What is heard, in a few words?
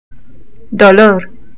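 pain, Spanish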